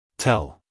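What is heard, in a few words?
"tell", American English, male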